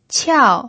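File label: "qiao", pinyin, tone 4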